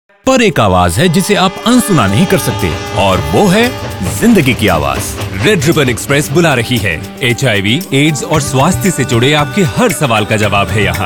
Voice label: Radio Hindi

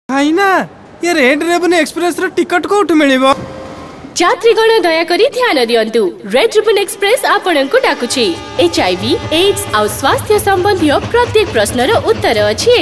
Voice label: Radio Oriya

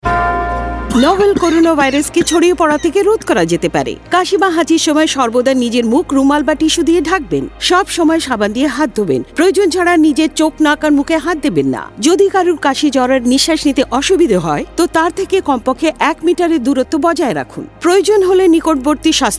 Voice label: Cough Radio Bangla, Radio spot; Tripura; on key behaviours COVID-19; SARS-CoV-2; UNICEF IEC eWarehouse

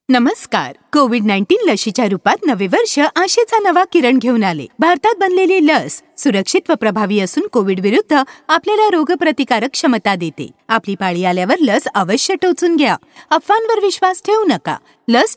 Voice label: Marathi Caller Tune

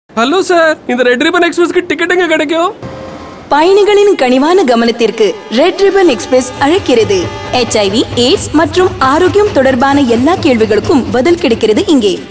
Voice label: Radio Tamil

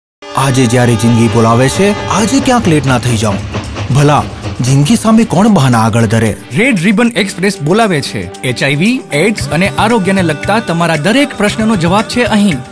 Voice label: Radio Gujarati

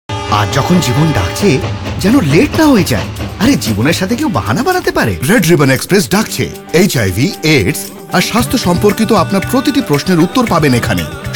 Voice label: Radio Bengali

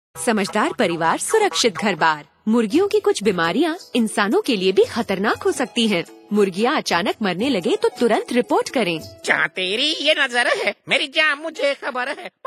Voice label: Radio report

